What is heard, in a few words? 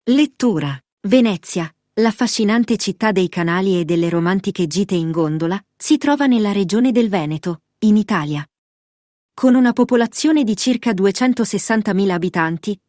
voiceover